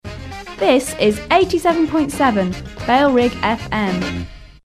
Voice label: female, jazz bed; this is 87.7 bailrigg fm